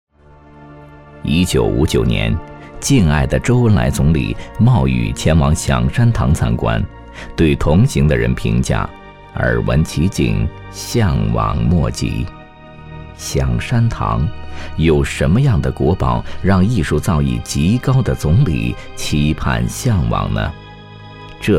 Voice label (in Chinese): 男 档案探秘类; 响山堂; 高仿任志宏